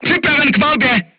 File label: voice message charged, crew tanks czech